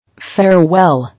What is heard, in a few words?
"Farewell", English